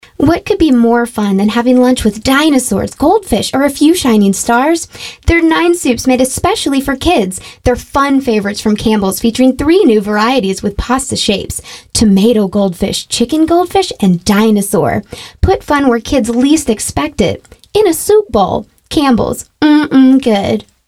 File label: Commercial; Campbell's Commercial